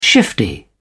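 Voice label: "shifty", British English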